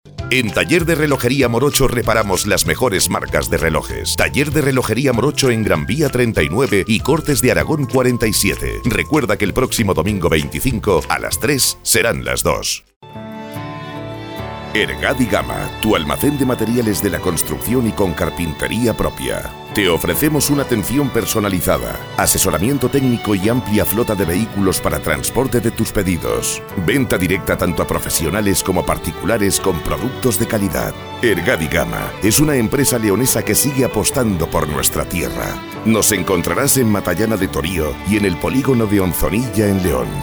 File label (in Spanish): HOMBRE